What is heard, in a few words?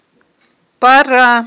"ппара", Aghul